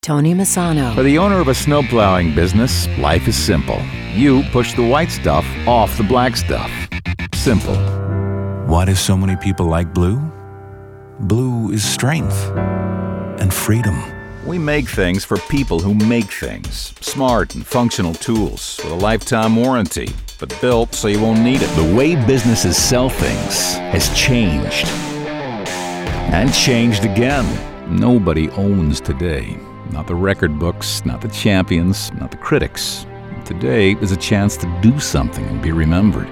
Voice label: Commercial